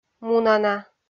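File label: "мунана", Komi, female; kpv audio dict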